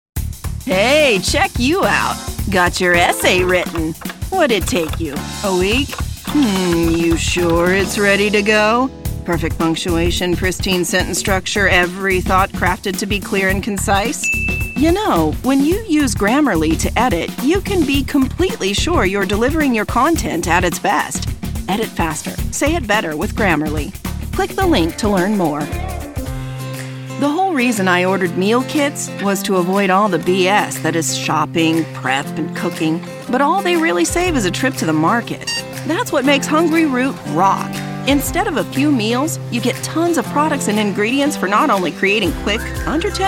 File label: Explainer